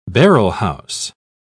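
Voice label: "barrelhouse", British English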